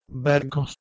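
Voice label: "bherghos", Proto-Indo-European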